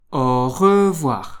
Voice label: "au revoir", French